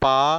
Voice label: "pa", consonant

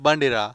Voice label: "rra", consonants

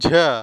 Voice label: "jha", consonants

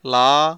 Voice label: "la", consonant

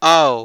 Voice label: "ou", vowel

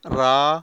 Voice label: "ra", consonants

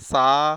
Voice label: "sa", consonant

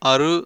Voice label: "aru", vowels

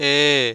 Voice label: "ae", vowel

